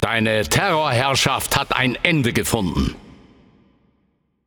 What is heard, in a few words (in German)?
Spree End, German